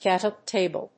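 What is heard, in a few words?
"gateleg table", English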